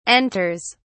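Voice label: "enters", English, British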